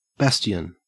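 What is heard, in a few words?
"bastion", Australian English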